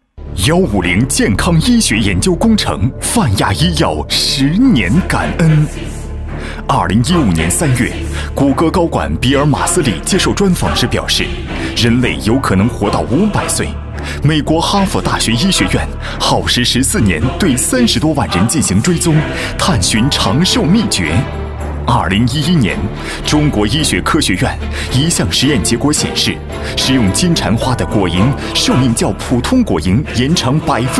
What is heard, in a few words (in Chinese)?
宣传片 企业 激情, 男; 金蝉花